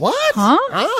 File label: "what huh huh", voice; questions